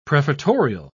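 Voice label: "prefatorial", English, British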